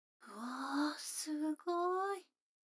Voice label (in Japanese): 女の子台詞, ASMR